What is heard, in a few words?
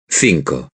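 "cinco" Spanish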